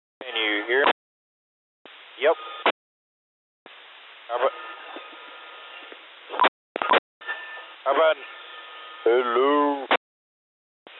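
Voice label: Aircraft Radio Checks, Satcom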